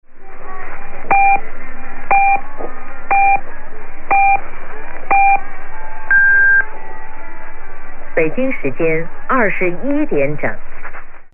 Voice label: Chinese time signal and announcement